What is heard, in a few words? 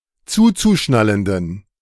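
"zuzuschnallenden", German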